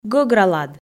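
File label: "гӧгралад", Komi, female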